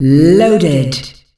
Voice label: "Loaded", female announcer; Unreal Tournament; ut2004